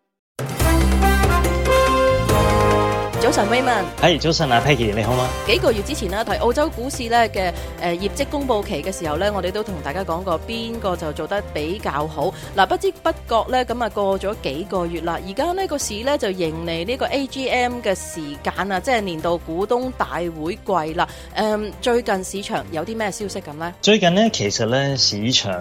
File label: cantonese; 【年尾股價催化期消息頻繁】澳美百億合作礦業股氣氛升溫; SBS Chinese; global finance